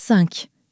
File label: "cinq", French